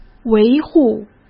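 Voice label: "维护", Mandarin Chinese; hsk; chinese-flashcards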